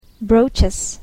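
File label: "brochures", English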